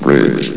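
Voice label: "bridge", male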